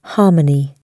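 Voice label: "harmony", British English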